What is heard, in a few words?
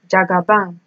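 "jagaban", Nigerian Pidgin, female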